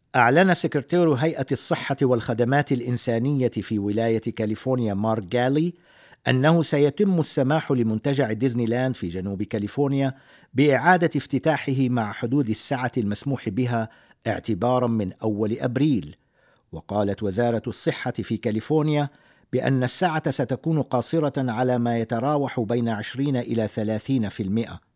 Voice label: Arabic M